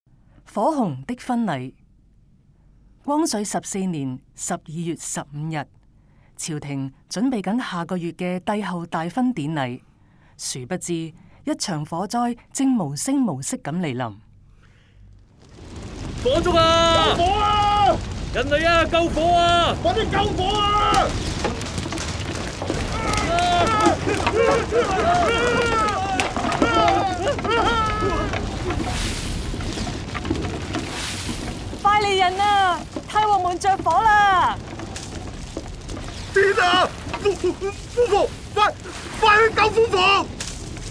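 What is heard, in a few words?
Cantonese, audio guide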